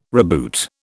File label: "reboot", British